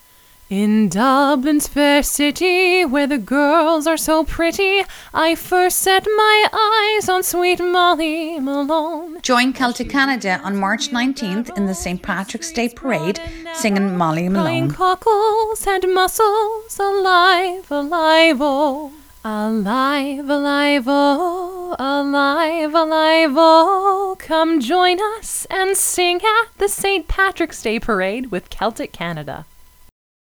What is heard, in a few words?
radio ad; Molly Malone